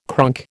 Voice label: "cronk", American

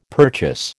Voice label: "purchase", American

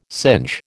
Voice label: "singe", American

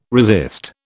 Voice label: "resist", British

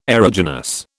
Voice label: "aeruginous", British

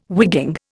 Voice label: "wigging", Indian

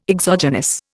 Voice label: "exogenous", Indian

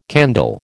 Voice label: "candle", American

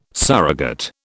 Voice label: "surrogate", British

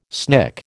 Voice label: "snick", American

